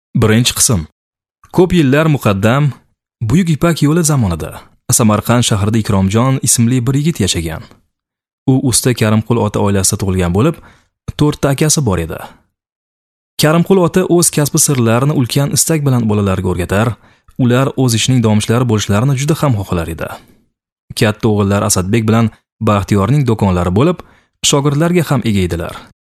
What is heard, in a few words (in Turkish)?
Ozbekce Erkek, seslendirme